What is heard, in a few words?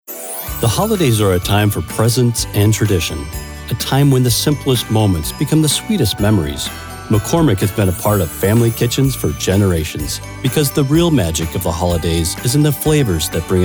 vo commercial